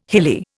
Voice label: "hilly", Indian